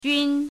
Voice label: "jun1", pinyin